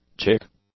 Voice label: "chick", English; nouns

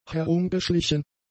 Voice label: "herumgeschlichen", German; adjectives

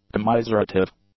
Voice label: "commiserative", English; adjectives

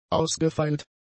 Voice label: "ausgefeilt", German; adjectives

